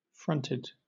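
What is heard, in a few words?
"fronted", British English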